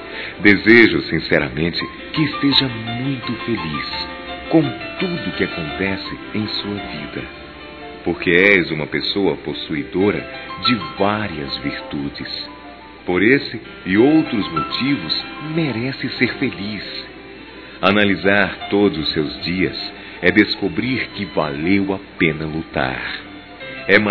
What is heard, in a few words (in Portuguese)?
Aniversário Comadre Masculino